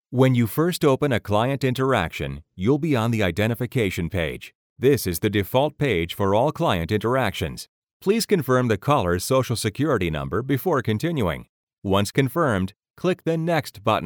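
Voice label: E-Learning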